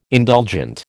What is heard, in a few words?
"indulgent", American